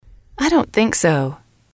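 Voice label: "I dont think so", English, female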